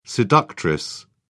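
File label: "seductress", British English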